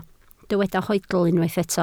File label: "hoedl", Welsh